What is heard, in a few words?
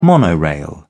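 "monorail", British English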